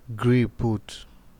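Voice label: "greeput", Nigerian Pidgin, male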